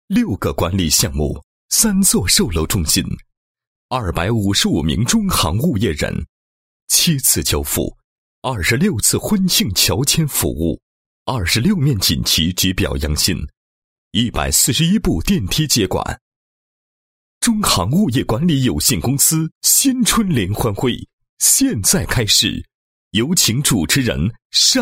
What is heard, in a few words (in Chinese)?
男 企业 物业宣传简介; 中航物业宣传简介